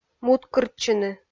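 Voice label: "муткыртчыны", Komi, female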